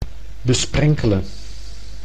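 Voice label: "besprenkelen", Dutch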